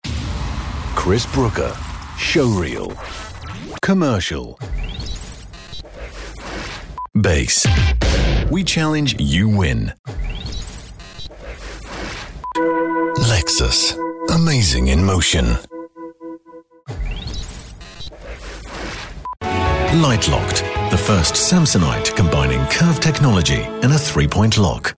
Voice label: commercial